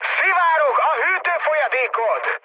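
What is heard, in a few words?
Hungarian damaged water mood high, speech; War Thunder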